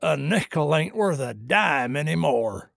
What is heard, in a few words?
trader male neutral greeting